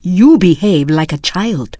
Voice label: Draenei Female Military Pissed